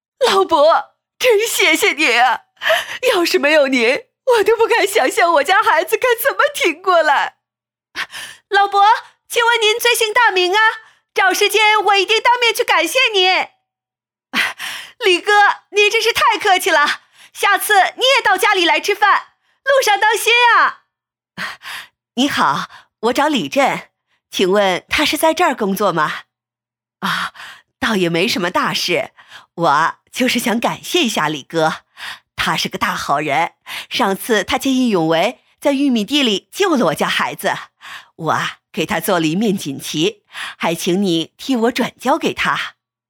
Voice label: 角色 中年家长

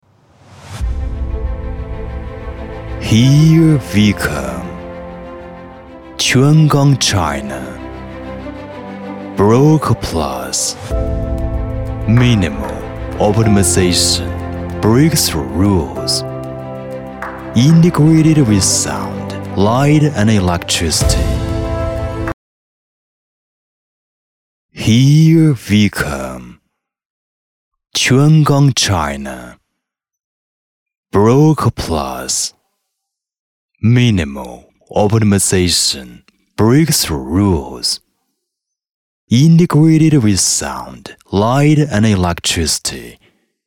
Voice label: 英文商业广告 大气; 泉港

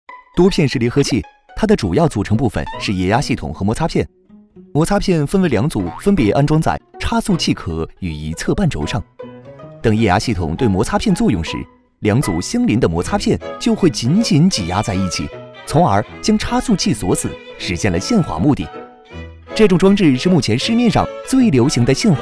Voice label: MG 科普介绍

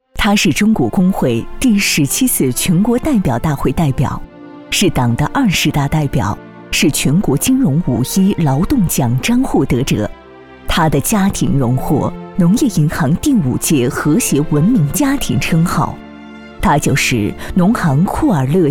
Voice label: 专题 人物宣传

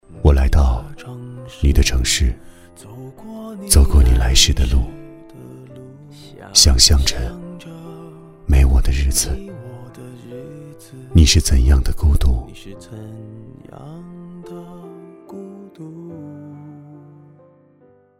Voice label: 旁白

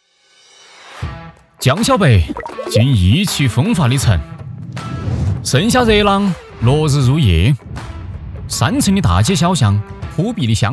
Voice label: 方言; 江小白

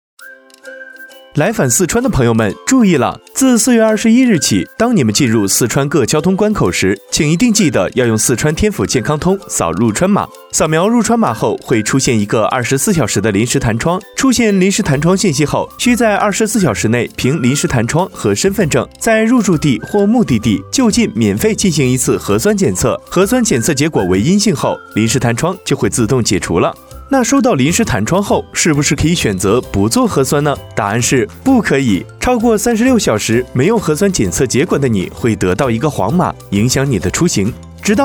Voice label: 科普教育 温暖亲和 青年

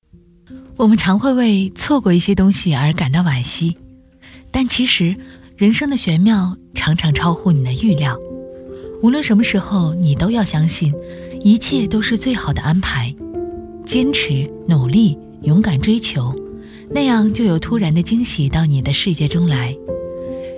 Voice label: 素人风; 自媒体; 幸福

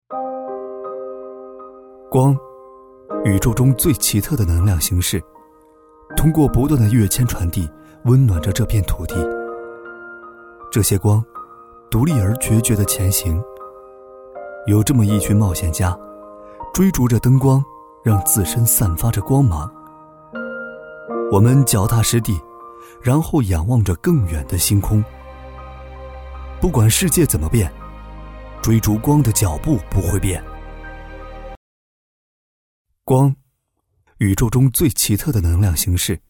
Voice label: 科技感 光

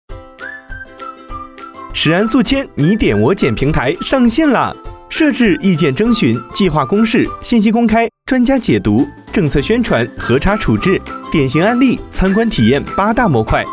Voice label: MG 科普教育 明亮活力 青年

